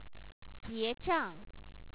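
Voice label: "jie2zha4ng", Chinese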